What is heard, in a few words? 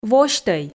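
"восьтӧй", Komi, female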